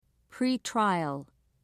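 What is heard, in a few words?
"pretrial", British English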